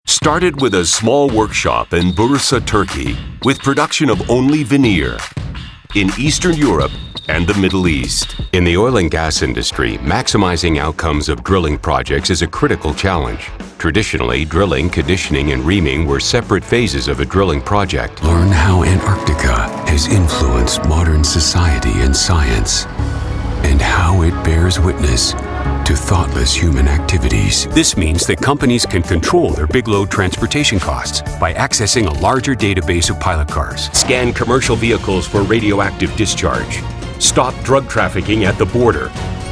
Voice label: voiceover examples